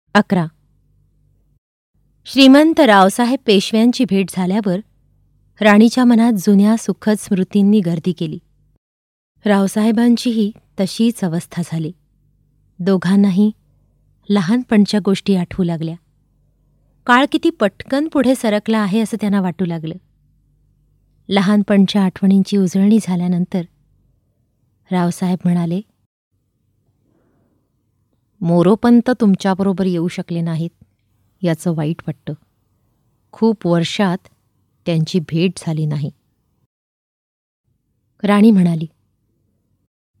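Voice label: Marathi, female, audiobook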